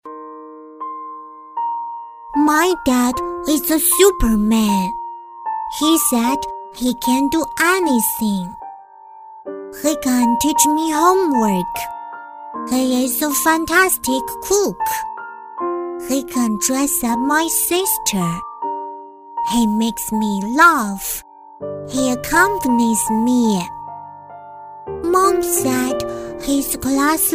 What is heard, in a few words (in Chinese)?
女 童声英文 独白感觉 4-5岁; 可爱